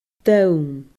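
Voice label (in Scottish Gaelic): "donn", Scottish Gaelic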